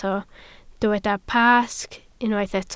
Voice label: "Pasg", Welsh

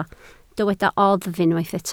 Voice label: "oddf", Welsh

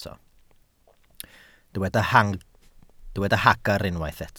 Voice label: "hagr", Welsh

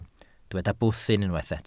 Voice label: "bwthyn", Welsh

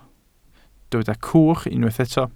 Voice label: "cwch", Welsh

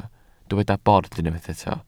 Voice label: "bord", Welsh

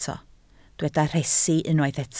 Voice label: "rhesi", Welsh

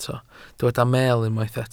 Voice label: "meel", Welsh

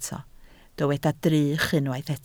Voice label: "drych", Welsh

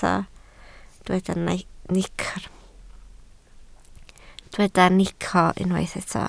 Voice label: "nico", Welsh

